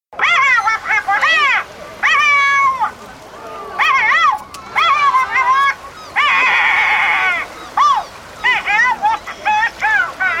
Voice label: Punch And Judy, Traditional English Punch and Judy show at the seaside.; Holidays; Voice